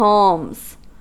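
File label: "palms", American English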